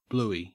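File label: "bluey", English, Australian accent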